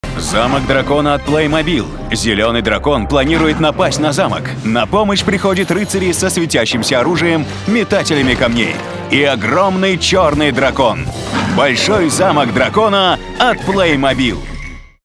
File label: Male